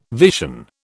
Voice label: "vision", British